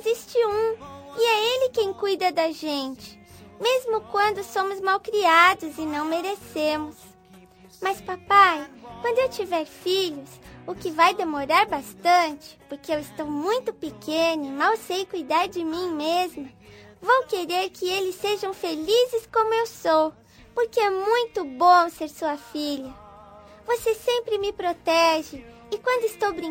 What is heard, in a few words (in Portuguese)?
Dia dos Pais F Infantil, telemensagens